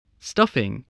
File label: "stuffing", spelling